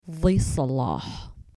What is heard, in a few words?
dhi salah arabic